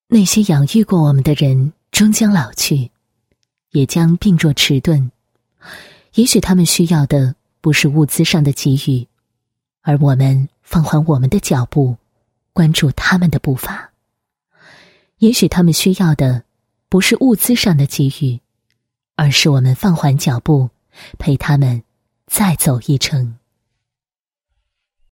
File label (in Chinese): 女 公益宣传; 用心公益 让爱流动